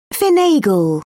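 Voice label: "finagle", British English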